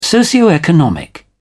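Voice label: "socioeconomic", British English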